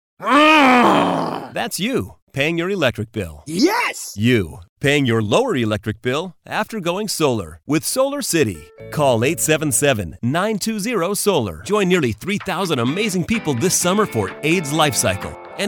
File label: Commercial Regular Guy